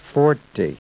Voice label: SPEECH ENGLISH